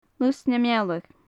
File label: "lus na meala", gaelic; Faclan Nàdair